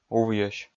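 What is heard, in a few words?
"увйӧсь", Komi, male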